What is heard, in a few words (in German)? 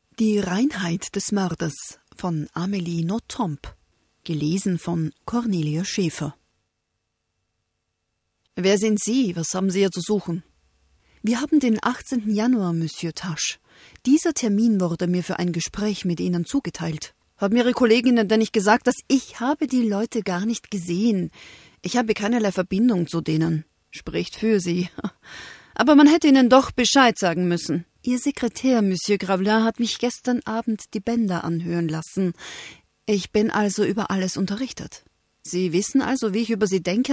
tonprobe prosa; die reinheit des moerders